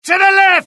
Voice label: alert, UK; mohaa; dialogue